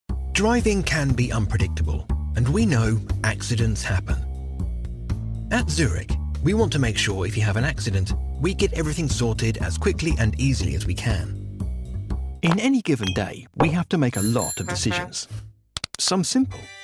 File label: Explainer Narration